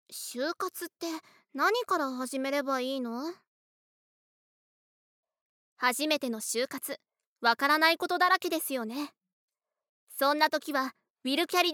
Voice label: Narration; ナレーション1